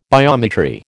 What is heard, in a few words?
"biometry", American